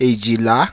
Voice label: "Ejila", Yoruba